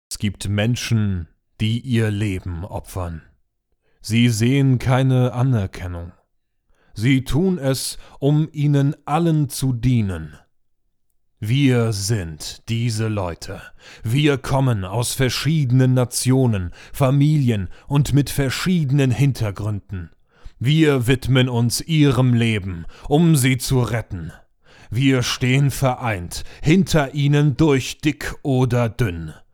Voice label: German, Male

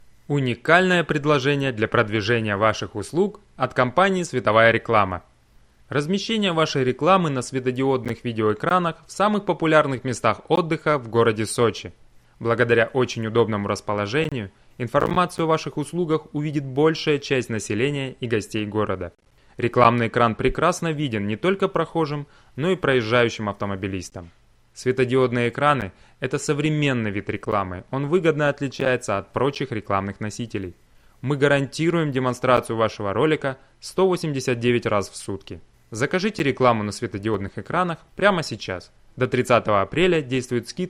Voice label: Russian, Male

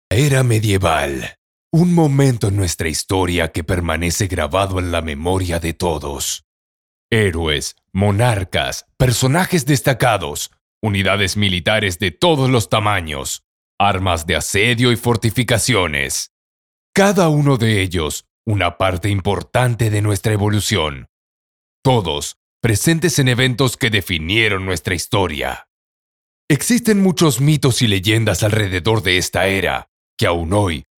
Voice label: Spanish (LA) Male

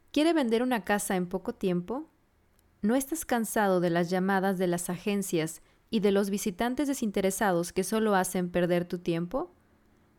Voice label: Spanish (LA), Female

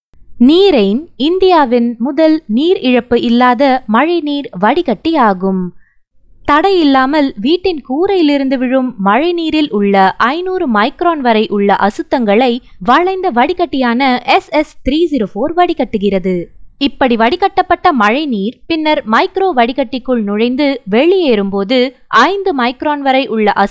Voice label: Tamil Female

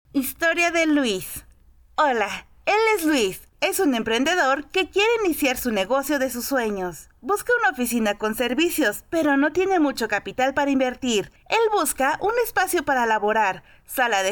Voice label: Spanish (LA), Female

